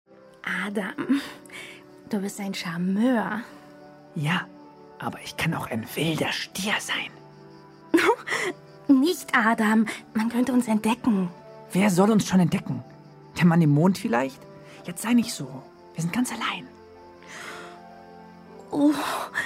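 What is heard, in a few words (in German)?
Hörspiel Renaissance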